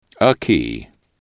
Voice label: "a key", english

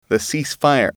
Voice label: "cease Fire", English

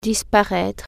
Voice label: "disappear", French

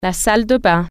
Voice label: bathroom, french

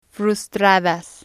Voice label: "frustadas", spanish

